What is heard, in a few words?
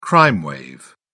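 "crime wave", British English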